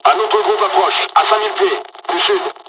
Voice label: dialog, dialogs french; midway; War Thunder

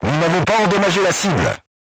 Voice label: voice message armor not pierce, crew tanks french

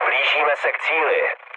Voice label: near waypoint, speech cze, mood low; War Thunder

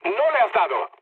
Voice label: bomb failure mood med; speech; War Thunder

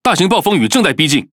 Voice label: Speech Alarms Storm, Languages ZH TW; World Of Warships

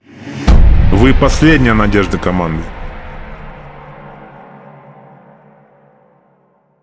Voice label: RU Speech Last Hope; World Of Warships; USSR Ovechkin

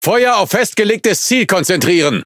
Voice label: Speech Quick Commands Enemy Ship, DE; World Of Warships

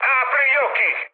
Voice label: player friendly fire mood high, speech it

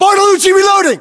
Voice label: inform reloading, US

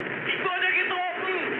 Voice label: aircraft destroyed, mood low, speech ge

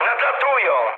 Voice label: enemy detected mood med, speech pol; War Thunder

